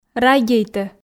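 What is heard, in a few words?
"радейтӧ" Komi, female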